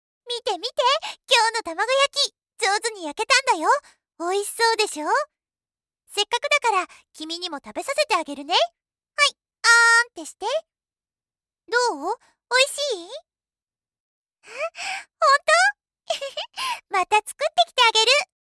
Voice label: female